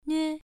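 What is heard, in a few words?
"nue", tone 1, pronunciation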